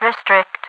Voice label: restrict, overwatch radio voice; Half Life 2